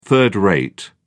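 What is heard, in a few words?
"third-rate", British English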